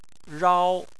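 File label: "rao", Chinese, tone 1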